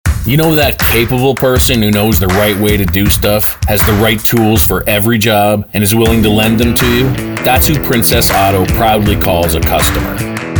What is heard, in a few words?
Radio Campaign; Princess Auto Branding Radio Campaign